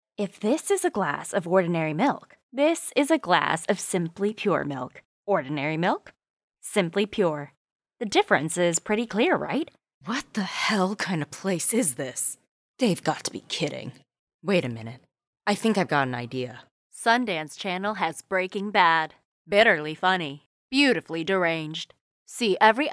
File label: Commercial